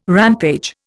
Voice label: "rampage", Indian